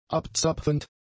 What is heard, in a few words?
"abzapfend", german; adjectives